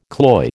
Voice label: "cloy", American